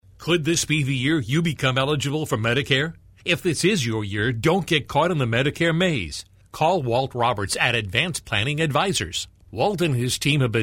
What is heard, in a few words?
commercial